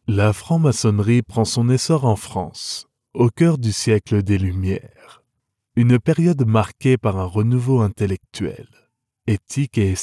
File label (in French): Video en animation diffusee dans un musee, narration voix off masculine; environ 8 minutes